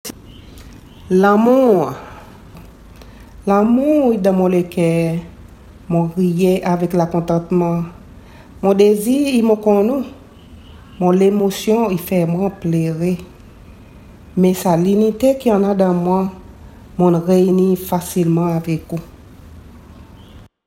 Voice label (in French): Amour par creole